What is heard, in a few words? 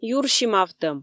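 "юрси мавтӧм", Komi, female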